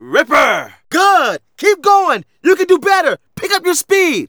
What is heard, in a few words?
Narration